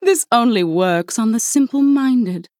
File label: Female Human Play